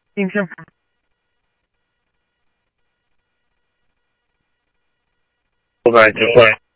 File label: Police, scanner audio trunk; H-Scottsdale